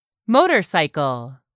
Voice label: "motorcycle", English; 3000 words